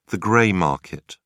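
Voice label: "grey market", British English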